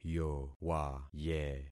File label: "yowaye", concat syllables; Affixing experiment